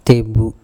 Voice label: "tebu", andamanese; vagina

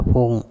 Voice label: "pHong", andamanese; hole

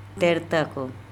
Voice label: "tErtakom", andamanese; to tell story

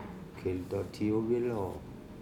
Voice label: kHilta Thu iobelo i go from here, Andamanese

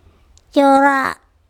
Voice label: "cOra", Andamanese; bird, kind of

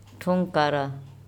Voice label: "Thungkara", Andamanese; my nails

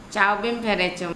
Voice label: "cao bem pHEre cOm", Andamanese

